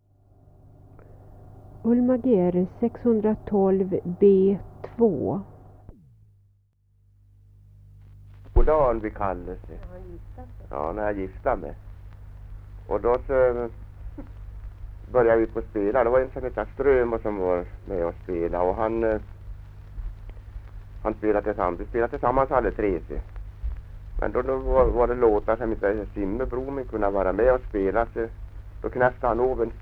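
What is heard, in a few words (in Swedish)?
Arbrå Hälsingland, Svenska sagor, dialekter; Folkminnen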